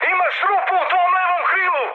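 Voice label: Serbian left wing mood high, speech; War Thunder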